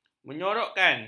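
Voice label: "menyorokkan", Malay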